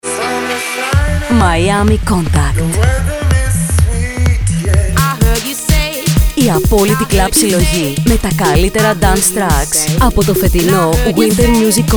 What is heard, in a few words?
Radio Spot; MIAMI CONTACT 2010